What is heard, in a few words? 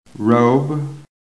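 "robe", American English; PHONETIC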